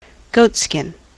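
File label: "goatskin", US English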